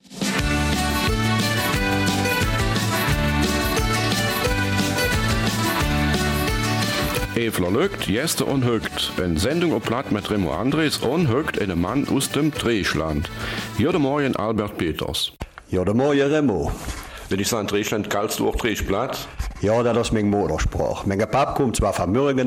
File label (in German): Eifeler Mundart; Ausstellung